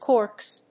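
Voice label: "corks", English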